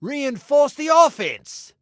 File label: Attack Reinforce, Male